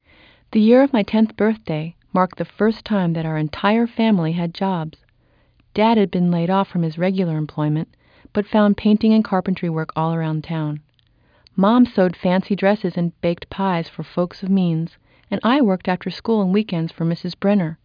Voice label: original, male clean